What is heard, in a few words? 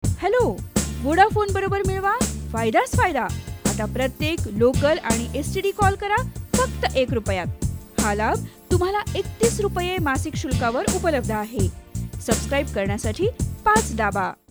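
Marathi female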